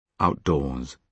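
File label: "outdoors", British English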